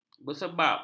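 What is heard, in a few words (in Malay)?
"bersebab", Malay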